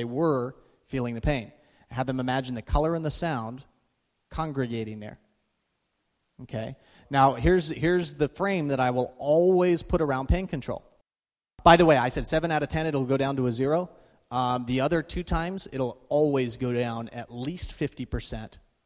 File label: Rapid Induction, Inducing Trance; NLP & Leadership Master Practitioner Training